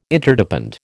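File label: "Interdepend", American English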